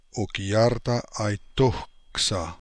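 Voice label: Okiartaaitokhsa Russian, Beelzebub pronounciations; Fourth Way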